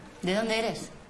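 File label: VoiceActing Spanish, female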